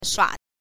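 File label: "shua", Mandarin Chinese, neutral tone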